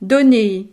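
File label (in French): "donner", French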